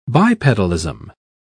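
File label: "bipedalism", British English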